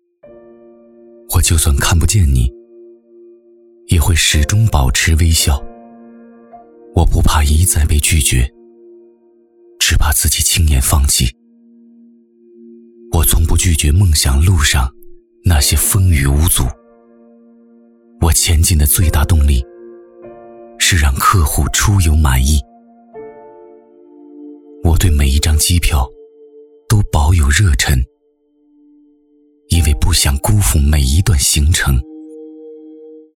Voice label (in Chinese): 男国 微电影 旅游